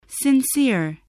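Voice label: "sincere", spoken word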